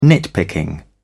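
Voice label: "nitpicking", British English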